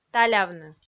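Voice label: "талявны", Komi, female; audio dict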